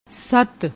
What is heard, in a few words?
"sait", Punjabi